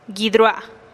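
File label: "guid rua'a", mis labios, Teotitlán del Valle Zapotec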